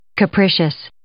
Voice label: "capricious", English

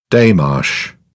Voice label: "démarche", British; AmericanBritish

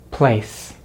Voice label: "place", English; Consonants in American English; easypronunciation

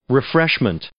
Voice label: "refreshments" noun

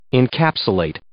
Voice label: "encapsulate", English

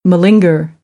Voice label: "malinger", American English; ɚ - əɹ

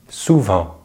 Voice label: "souvent", French

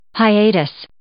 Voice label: "hiatus", English

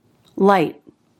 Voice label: "light", English

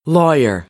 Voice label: "lawyer", American; English; ɑː - ɔː

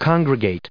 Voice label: "congregate", English

